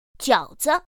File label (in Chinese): "jiǎozi", pinyin; pinyin2; pinyinji